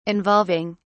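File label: "involving", British English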